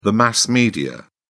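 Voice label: "mass media", British English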